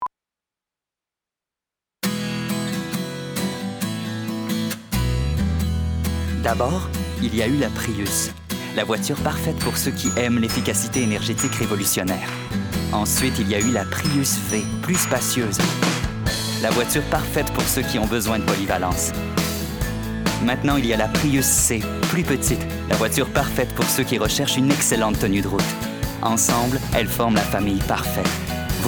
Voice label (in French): publicite